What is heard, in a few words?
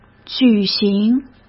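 "举行", Mandarin Chinese; hsk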